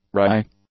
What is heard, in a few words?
"wry", english; adjectives